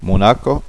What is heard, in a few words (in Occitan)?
"monaca", Gascon